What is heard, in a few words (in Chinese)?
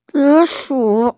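"zi3shu3", Mandarin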